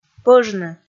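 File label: "пӧжны", Komi, female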